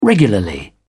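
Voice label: "regularly", British English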